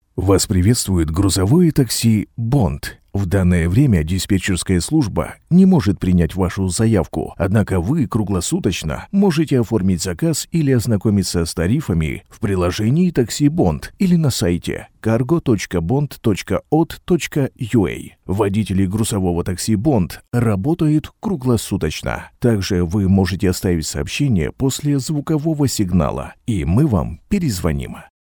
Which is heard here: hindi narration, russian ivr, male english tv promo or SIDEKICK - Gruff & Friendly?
russian ivr